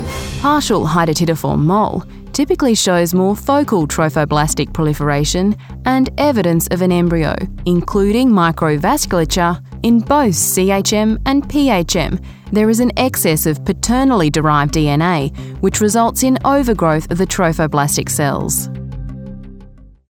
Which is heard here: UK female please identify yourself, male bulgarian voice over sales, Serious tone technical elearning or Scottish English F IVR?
Serious tone technical elearning